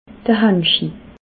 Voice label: "handschi", alsace